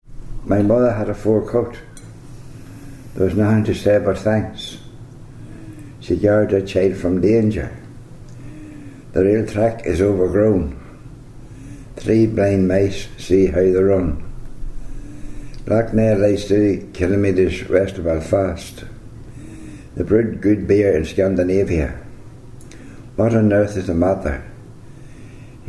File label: Irish English, North of Ireland, sentences, Armagh City, Co. Armagh